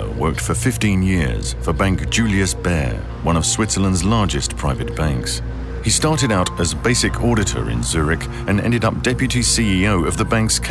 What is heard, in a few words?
documentary